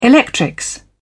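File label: "electrics", British English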